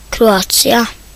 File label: croatia, nb; gcompris; geography